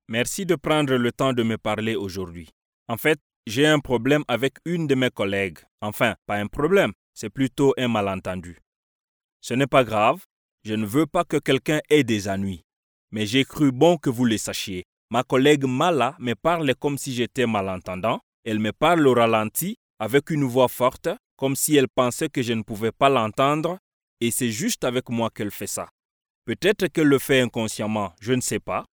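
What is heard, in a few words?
French African male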